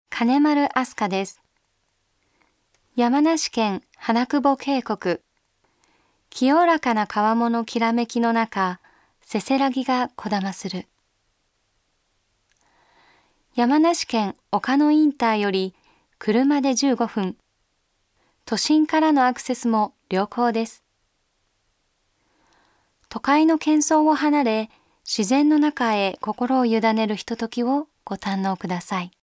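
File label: Voice Sample Narration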